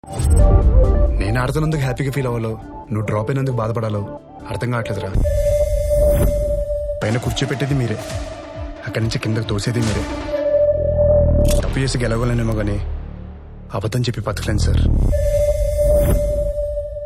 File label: Dialogue Ringtone; Lakshya; Naga Shaurya